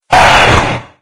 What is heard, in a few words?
hellknight pain; slender